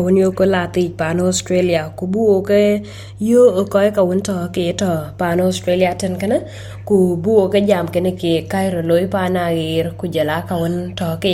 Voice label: dinka, podcast; SBS Dinka